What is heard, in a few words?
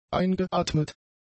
"eingeatmet", German; adjectives